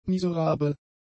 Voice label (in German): "miserabel", German; adjectives